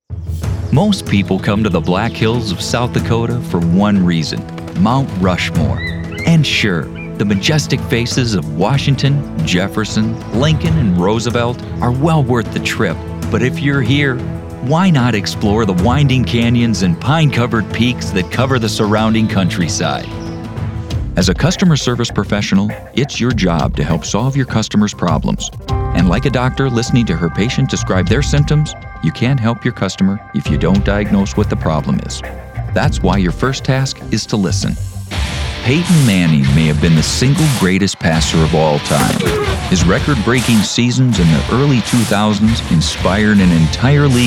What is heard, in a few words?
Narration